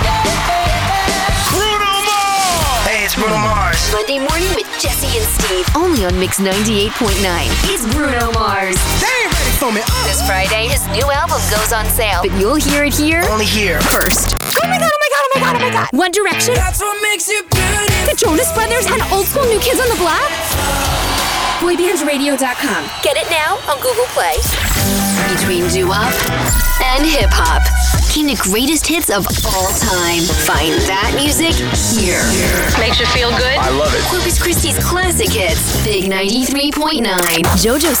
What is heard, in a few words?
Radio Imaging